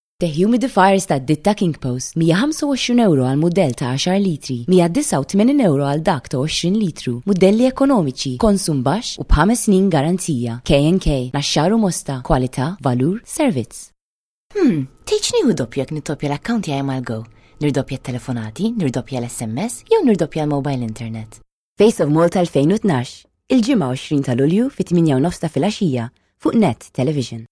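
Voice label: Maltese; Female; 30s-40s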